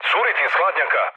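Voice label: Serbian damaged water mood med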